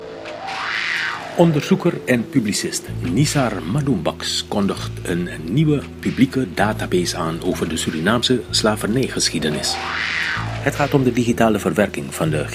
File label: Radio spot